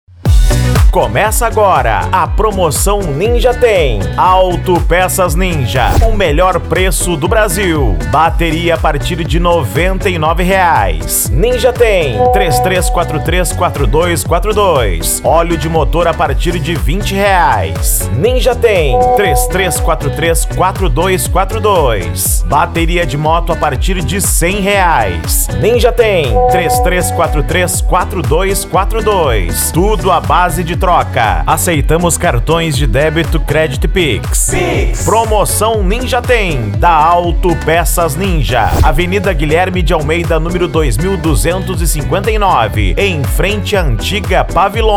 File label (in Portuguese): Locutor; Auto Pecas Ninja NINJA TEM Londrina PR